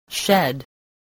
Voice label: "shed", spelling